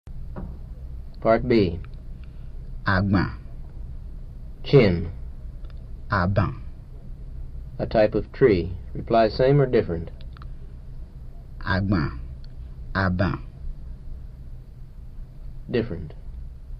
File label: Yoruba; FSI; Basic